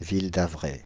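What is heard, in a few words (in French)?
"Ville-d’Avray" French, Paris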